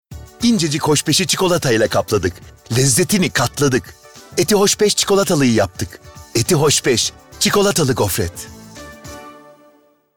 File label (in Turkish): Neseli